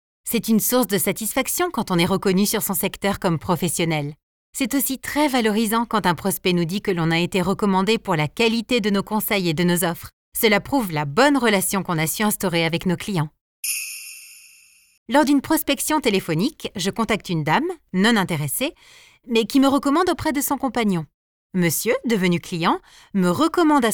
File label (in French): E-Learning; Credit Agricole